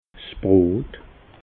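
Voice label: "brot", Alsatian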